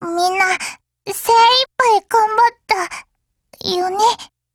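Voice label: Japanese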